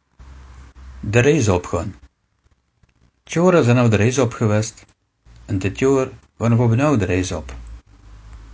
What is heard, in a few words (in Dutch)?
op reis gaan, dialect